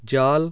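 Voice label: voiced postalveolar affricate, hindi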